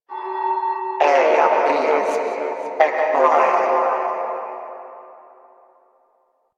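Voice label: Announcer Female, English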